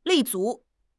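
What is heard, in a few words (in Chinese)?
"立足", Chinese; HSK; HSK6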